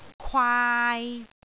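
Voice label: "kwaai3", Cantonese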